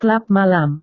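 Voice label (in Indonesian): "klub malam", Indonesian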